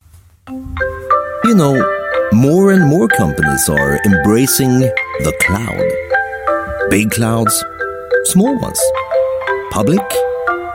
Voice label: Swedish English, Male; Cisco